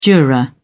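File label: "juror", British English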